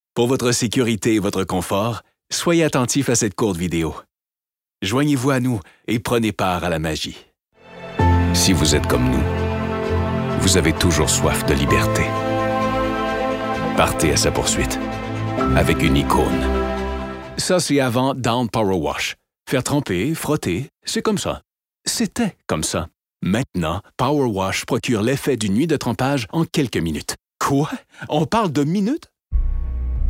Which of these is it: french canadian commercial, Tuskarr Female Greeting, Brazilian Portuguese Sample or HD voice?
french canadian commercial